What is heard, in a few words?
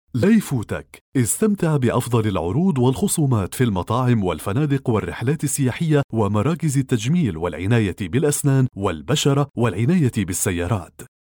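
Arabic Saudi Arabia, male, voice over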